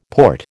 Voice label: "port", American